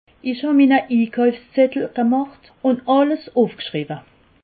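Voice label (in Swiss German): "inkaufszettel", Alsatian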